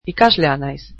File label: Im a student, basque